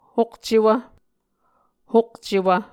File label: "hokcewv", Muscogee